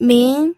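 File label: "min", Mandarin Chinese, second tone; pinyin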